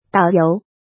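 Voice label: "Dǎoyóu", Chinese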